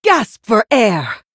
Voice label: Human Emote Attack

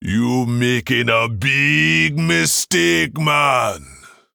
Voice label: Male Troll

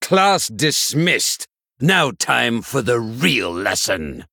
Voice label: Male Human Play

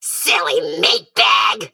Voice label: Male Mech Attack

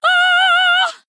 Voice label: Female Human Emote Attack

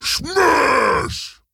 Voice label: Ogre Emote Attack; Boulderfist

